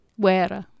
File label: "waere", Anglo Saxon